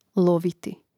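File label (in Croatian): "loviti", Croatian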